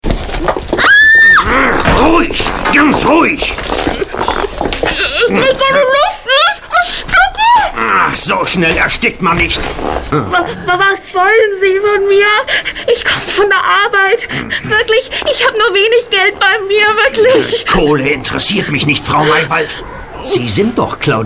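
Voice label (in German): Hörspiel; TKKG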